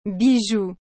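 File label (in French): "bijou", French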